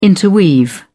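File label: "interweave", British English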